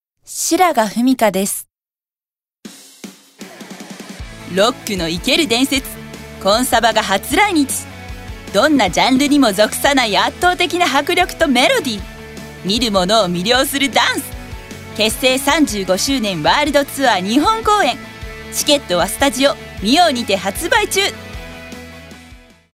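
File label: commercial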